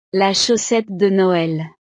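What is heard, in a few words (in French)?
"la chaussette de noel", French; navidad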